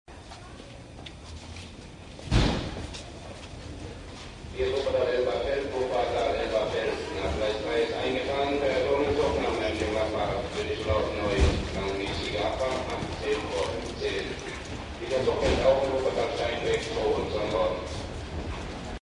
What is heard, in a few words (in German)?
Durchsage